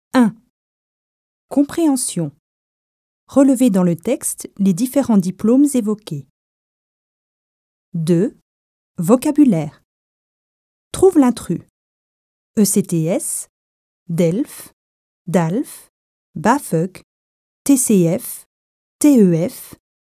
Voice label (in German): Französisch Mittel Übung; C'est vrai